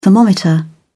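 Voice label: "thermometer", British English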